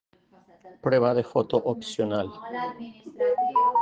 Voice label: Voice message